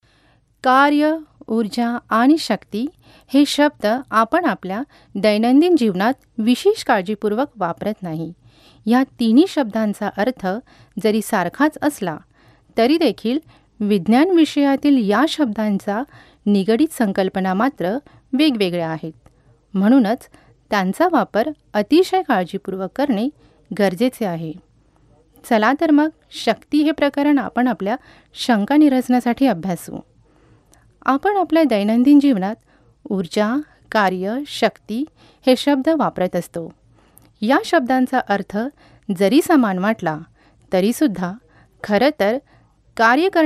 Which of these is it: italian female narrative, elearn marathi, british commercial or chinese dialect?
elearn marathi